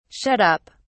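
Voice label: "shut up", British English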